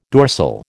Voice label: "dorsal", American